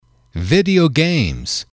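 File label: "video games", English, male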